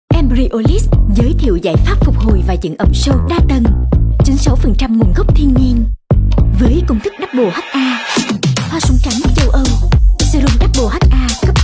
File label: South Female, Vietnamese; Embryolise